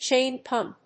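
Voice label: "chain pump", English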